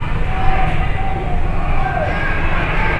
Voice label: sadness, ラグビー場, Sadness; ・悲しみ; 感情プロファイルマッチング環境音